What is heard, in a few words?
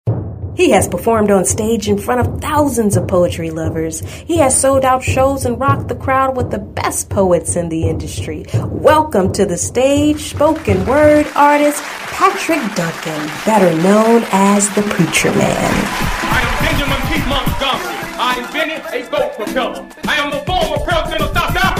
60 SECOND COMMERCIAL